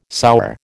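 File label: "sour", American English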